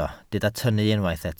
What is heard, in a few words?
"tynnu", Welsh